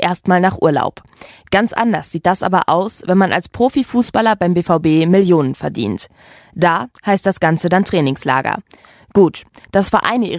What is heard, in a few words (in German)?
podcast kommentar; trainingslager